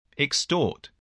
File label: "extort", voice